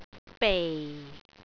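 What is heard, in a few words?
"bei4", Cantonese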